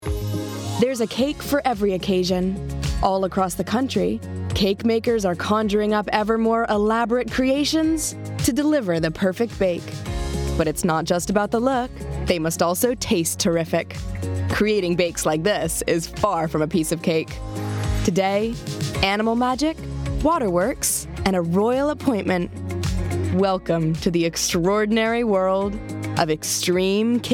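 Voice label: documentary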